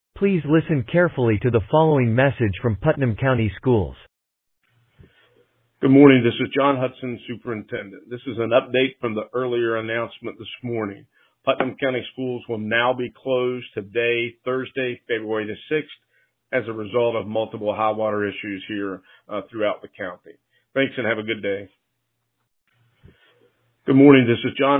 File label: Voicemail